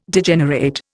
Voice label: "Degenerate", Indian